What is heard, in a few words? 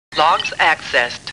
Star Trek Computer Voice; StarTrekLCAR